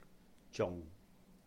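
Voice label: "juhng", Cantonese; still; also; 仲; zung6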